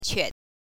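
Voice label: "que", Mandarin Chinese, neutral tone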